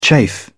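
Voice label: "chafe", British English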